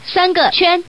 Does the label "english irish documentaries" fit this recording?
No